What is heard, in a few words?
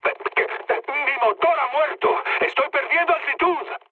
damaged engine, mood high, speech; War Thunder